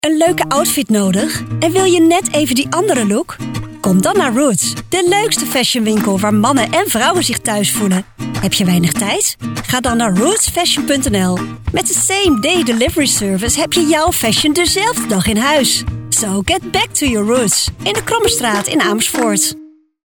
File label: Commercial; Roots